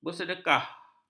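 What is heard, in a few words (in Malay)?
"bersedekah", Malay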